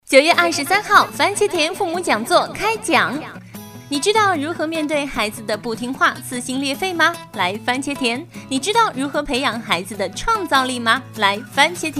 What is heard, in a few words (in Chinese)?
女; 番茄田教育